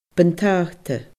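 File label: "buntata", Scottish Gaelic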